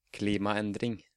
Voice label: "Klimaendring", Norwegian